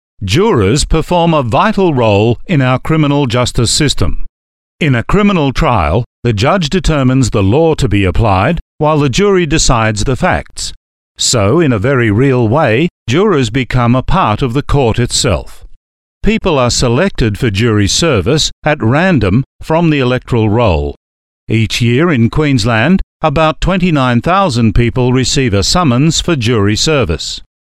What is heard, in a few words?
male talent corporate